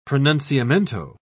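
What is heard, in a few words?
"pronunciamento", British English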